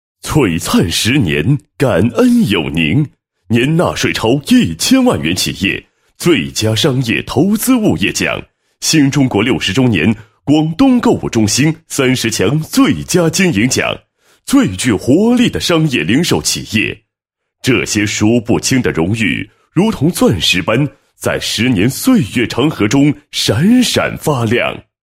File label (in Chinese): 男 房地产 宣传片; 嘉洲广场宣传片配音稿